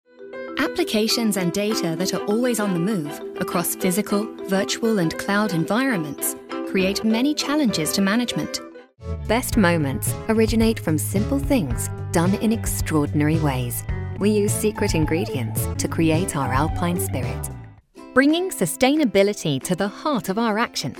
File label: Corporate